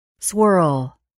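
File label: "swirl", English word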